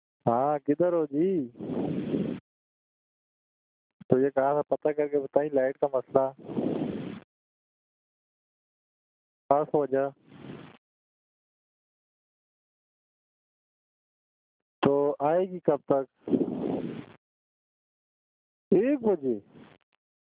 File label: Recorded Calls; Eyecon